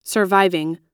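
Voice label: "surviving", American English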